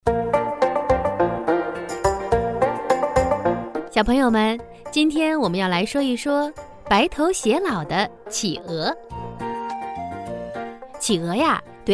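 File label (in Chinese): 科普节目动物探秘少儿亲切; 科普节目动物探秘萤少儿亲切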